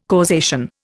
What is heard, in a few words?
"causation", Indian